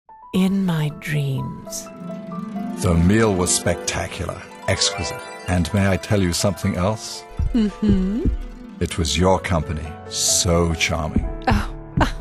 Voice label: radio